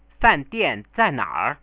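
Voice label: fandianzainaar, Chinese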